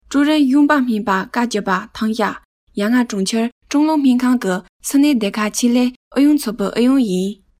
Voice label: Tibetan female